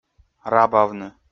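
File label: "рабавны", Komi, male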